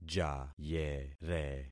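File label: "jayere", concatenated syllables; Affixing experiment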